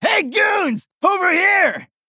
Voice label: Male Orc Adolescent Play